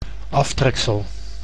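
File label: "aftreksel", Dutch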